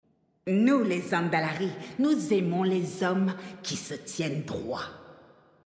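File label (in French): French Female Flirt; Troll Zandalari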